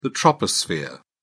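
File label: "troposphere", British English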